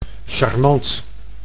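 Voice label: "charmant", Dutch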